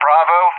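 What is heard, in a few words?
"Bravo", English; JTAC; Alphabet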